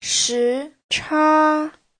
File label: "shi2cha1", Chinese